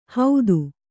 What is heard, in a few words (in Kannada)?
"ಹೌದು", Kannada